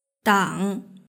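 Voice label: "dang" Mandarin Chinese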